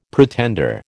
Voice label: "pretender", American

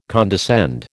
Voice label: "condescend", American

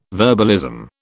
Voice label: "verbalism", British

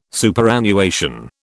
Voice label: "Superannuation", British

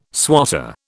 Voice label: "swatter", British

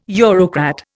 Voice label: "Eurocrat", Indian

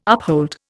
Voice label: "uphold", Indian

